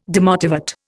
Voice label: "demotivate", Indian